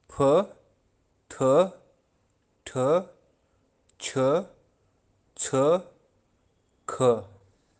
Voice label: Aspirated consonants achar kashmiri, kashmiri language